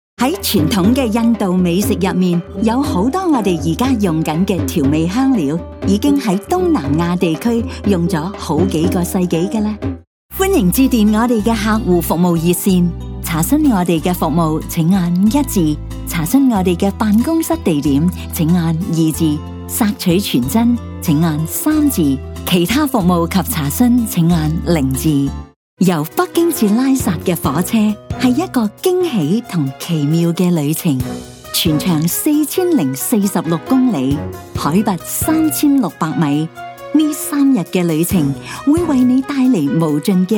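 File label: Canto narration, Cantonese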